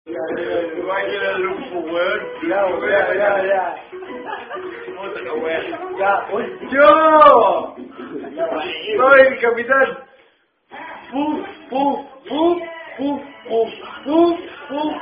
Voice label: Brazilian Version Of Are You A Cardinal Drinking Game; Capitan Puff